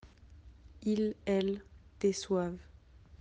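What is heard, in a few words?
"décevoir", French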